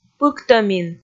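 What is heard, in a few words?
"пыктӧмин", Komi, female; kpv audio dict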